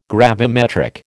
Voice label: "gravimetric", American